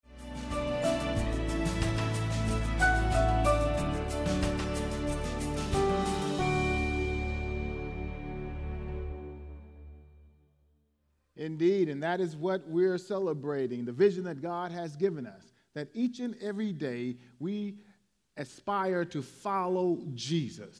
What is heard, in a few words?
English Sermon